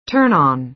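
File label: "turn-on", American English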